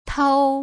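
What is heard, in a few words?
"tou", Mandarin Chinese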